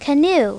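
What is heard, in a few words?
"canoe", English; My Talking Dictionary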